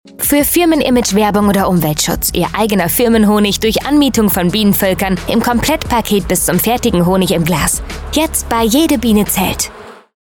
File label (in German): Funkspot